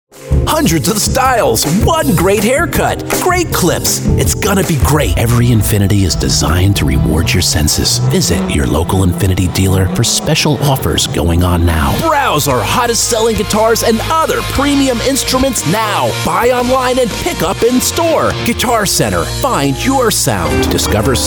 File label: Commercials